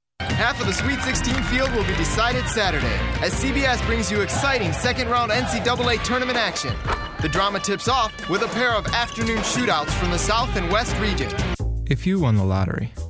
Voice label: male commercial

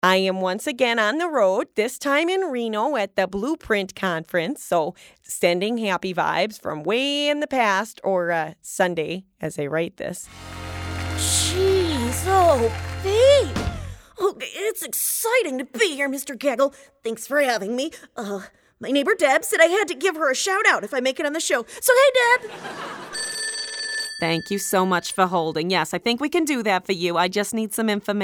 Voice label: Animation Female

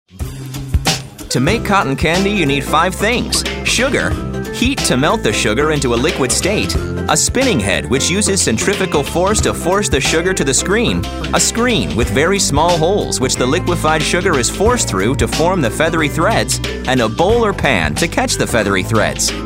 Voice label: Narrative

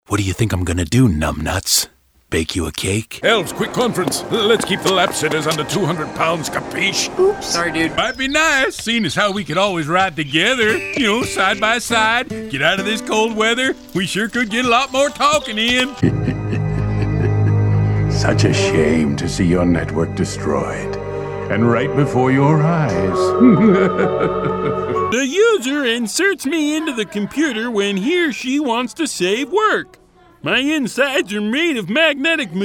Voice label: Character

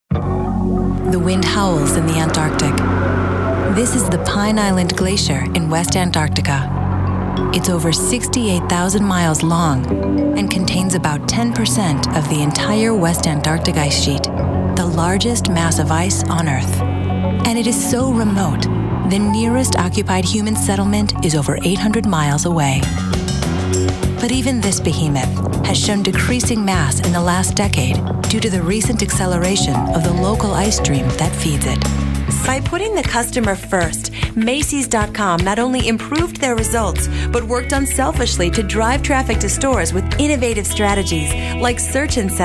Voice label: Narration

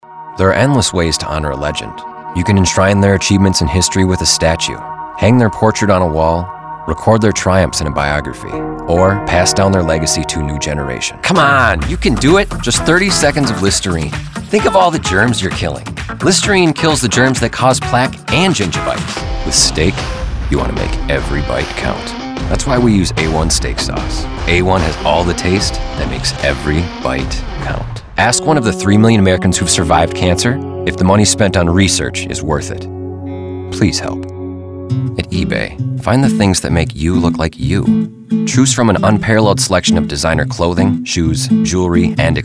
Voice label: Commercial

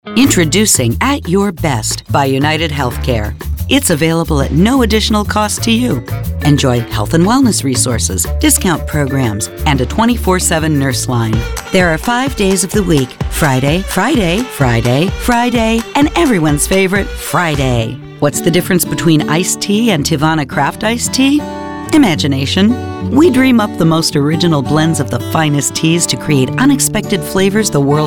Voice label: Female Commercial

